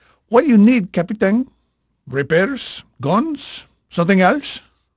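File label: Spanish male, voice; Pirates Of The Caribbean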